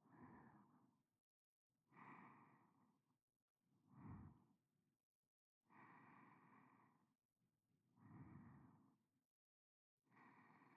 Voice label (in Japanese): 添い寝ループ